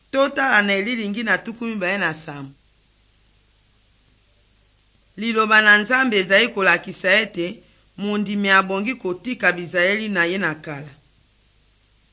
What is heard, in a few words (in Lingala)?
good news lingala west, drama